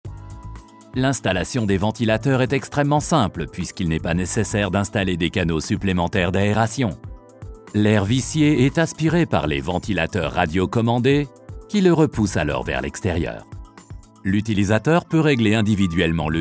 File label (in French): mode d emploi sympa; MAIKO; INDUSTRIEERKLAERFILM